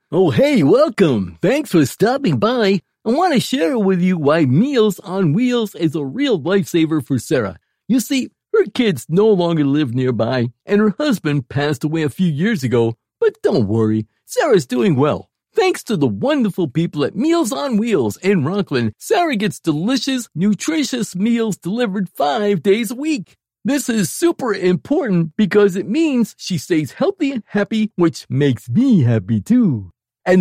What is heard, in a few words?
goofy dog